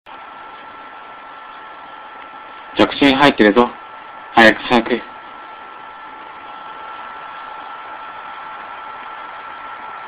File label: male